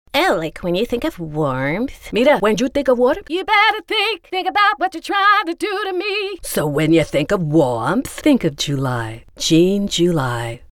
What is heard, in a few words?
voice actress